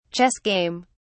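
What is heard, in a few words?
"chess game", British English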